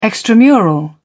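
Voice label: "extramural", British English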